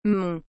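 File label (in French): "mont", French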